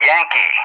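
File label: "Yankee", English; JTAC; Alphabet; DCS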